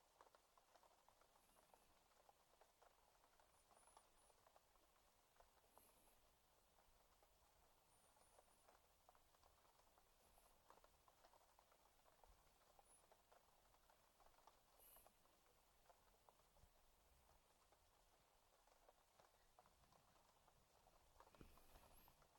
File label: Rain, Whispering; Maybe we can sleep in; Good Morning; Relationship Roleplay; Singing; Breathing; Sleeping; Possible sleep aid; F4A